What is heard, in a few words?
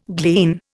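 "gleanings", Indian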